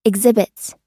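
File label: "exhibits", US English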